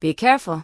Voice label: Human Female Warrior NPC Farewell